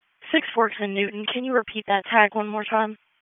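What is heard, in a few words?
Police District Dispatch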